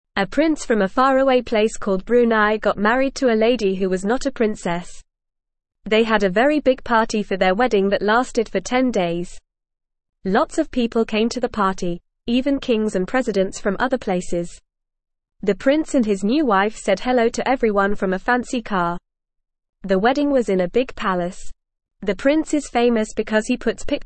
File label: Beginner FAST Reading, English; English Newsroom; A Princes Big Wedding Party in Brunei